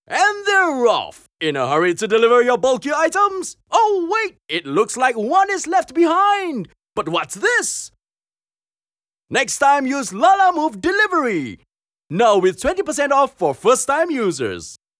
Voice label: Commentator

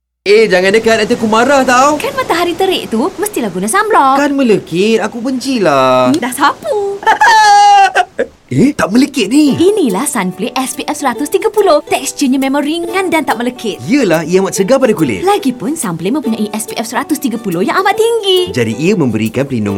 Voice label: Radio; Sunplay Sunblock SPF 130

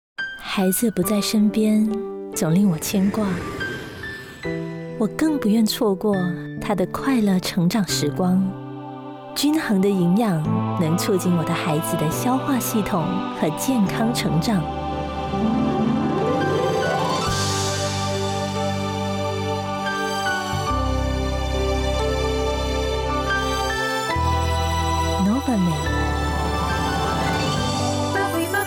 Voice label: VO Sample Warm

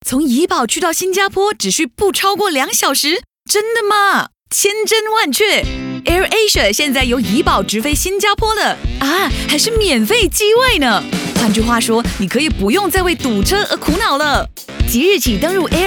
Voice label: VO Sample Excited

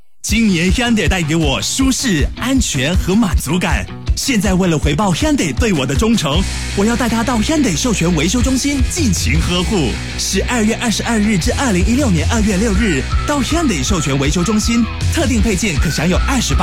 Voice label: young energetic; hyundai

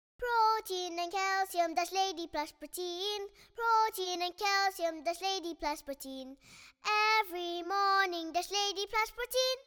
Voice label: ENG COLD VOX

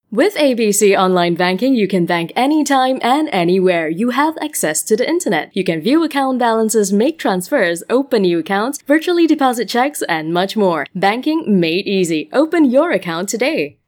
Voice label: Promo Banking

